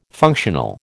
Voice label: "functional", American